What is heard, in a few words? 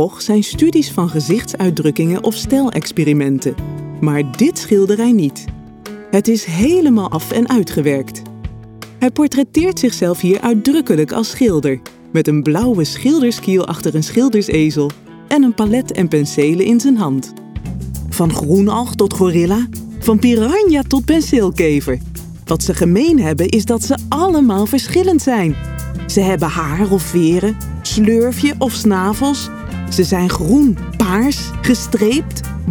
Voice Over